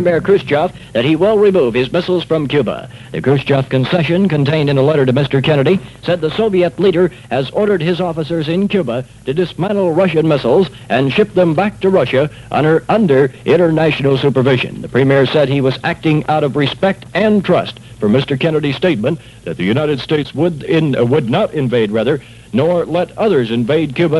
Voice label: news; 1962; cuban missile crisis